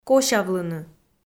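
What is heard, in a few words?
"косявлыны", Komi, female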